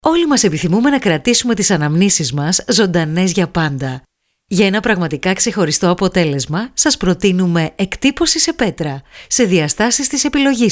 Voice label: Greek